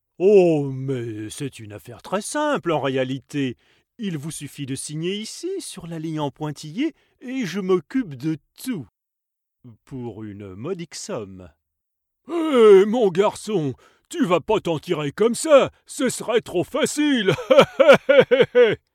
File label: videogames cartoons, French Voiceover; Videospiele